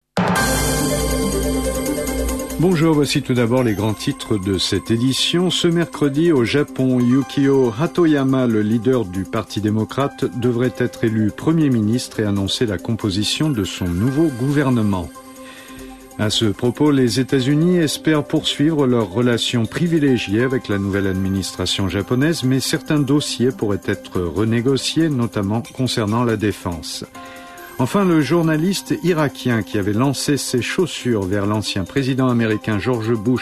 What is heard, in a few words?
news